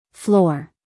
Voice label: "floor", us female; everyone-can-use-english

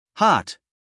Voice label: "hot", us male; everyone-can-use-english

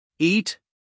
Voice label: "eat", us male

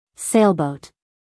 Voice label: "sailboat", US female; 1000 hours

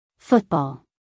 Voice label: "football", us female; everyone-can-use-english

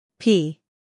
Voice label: "p", US; sounds-of-american-english; everyone-can-use-english

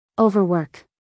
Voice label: "overwork", US female

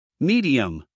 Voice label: "medium", US male

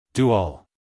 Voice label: "Do all" US English, male; everyone-can-use-english

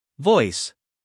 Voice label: "voice", us male; everyone-can-use-english

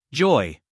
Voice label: "joy", us male

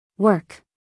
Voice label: "work", US English, female; everyone-can-use-english

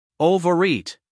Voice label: "overeat", US male; everyone-can-use-english

